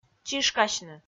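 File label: "чишкасьны", Komi, female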